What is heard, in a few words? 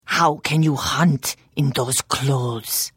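Hello, Khajiit female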